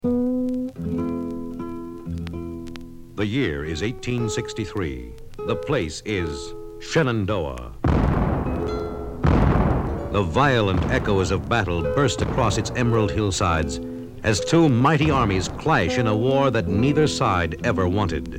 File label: Radio Spot; Shanandoah